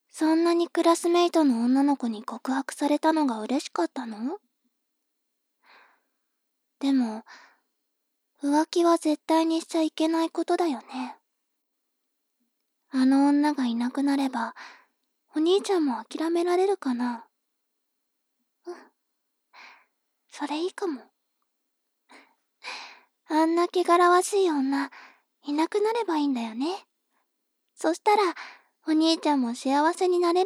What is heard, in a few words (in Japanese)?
妹 台詞